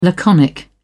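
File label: "laconic", British English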